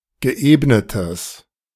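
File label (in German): "geebnetes", German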